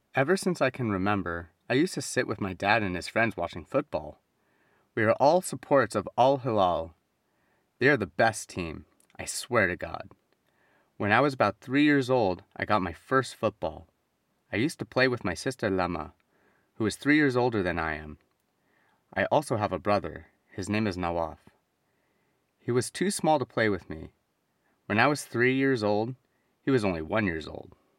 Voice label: Reading